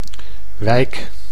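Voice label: "wijk", Dutch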